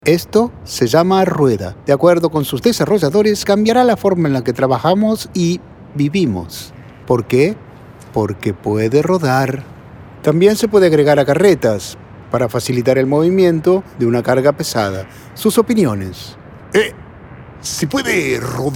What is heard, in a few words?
low Latam